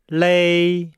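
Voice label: "lei", Chinese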